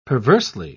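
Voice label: "perversely", British English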